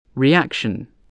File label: "reaction", english